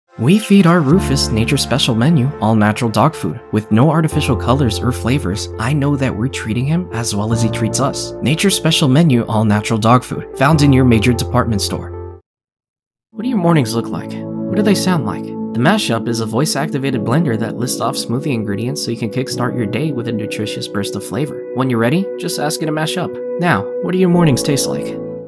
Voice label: Calming AD Voiceover Demo

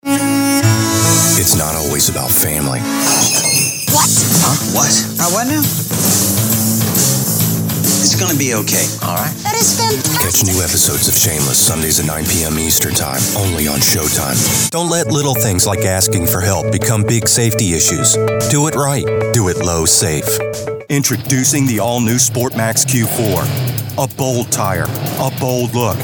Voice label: New commercial mix

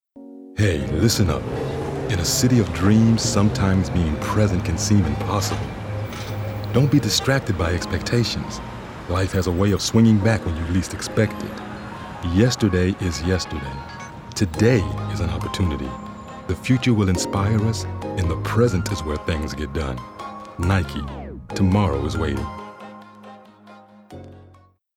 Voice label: Confident Focused

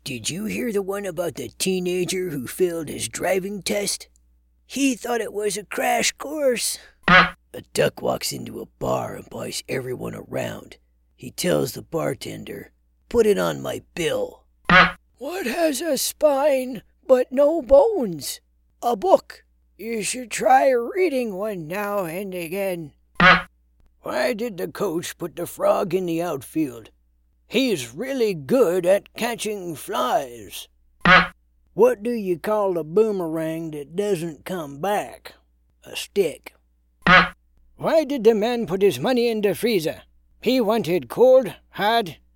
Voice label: Old Men Telling Jokes

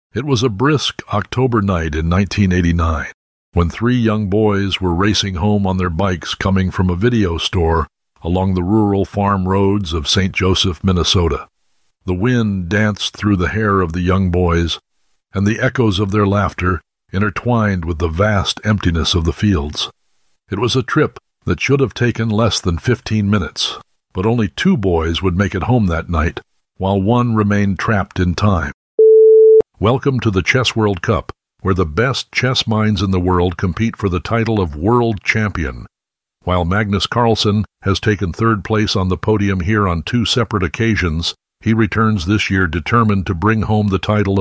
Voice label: documentary

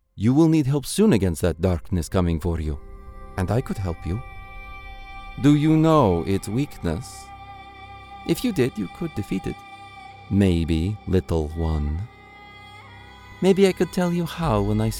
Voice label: Demo Reel Character

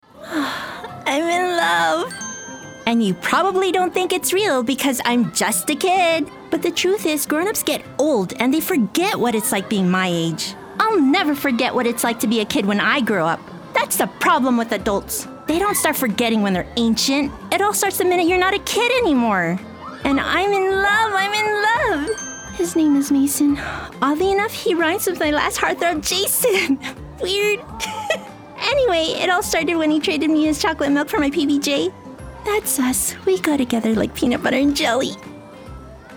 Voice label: Teen

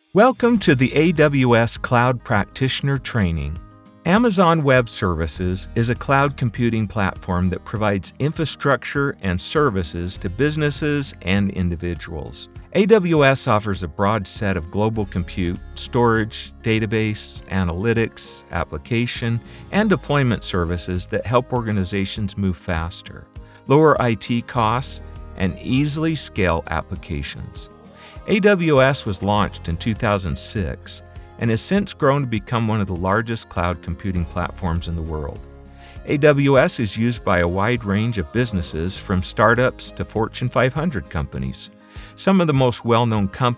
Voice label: E Learning

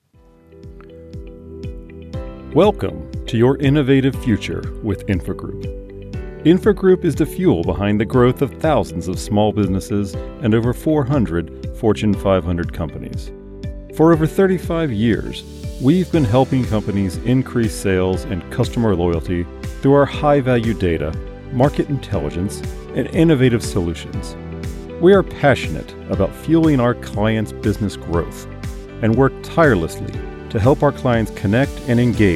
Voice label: narrative

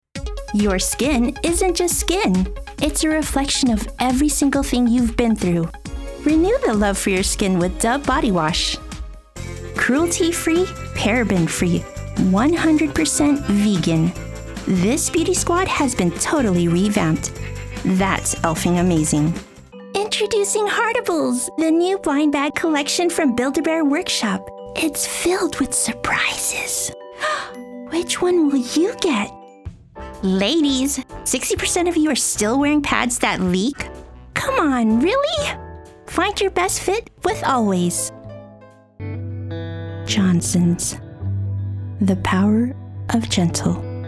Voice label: Commercial

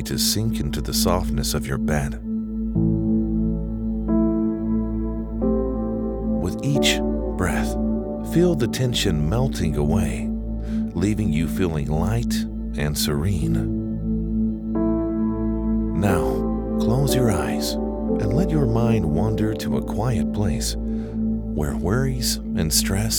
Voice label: Affirmations for Sleep; Retail Sample

